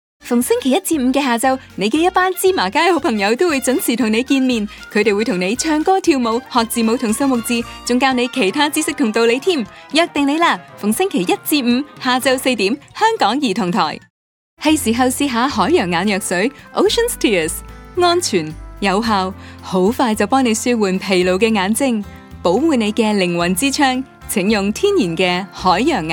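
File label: Cantonese TVC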